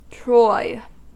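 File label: "troy", American English; pronunciations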